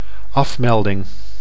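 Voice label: "afmelding", Dutch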